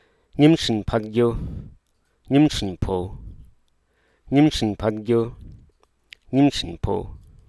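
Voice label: Hruso Aka; disgrace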